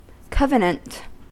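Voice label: "covenant", American English; pronunciations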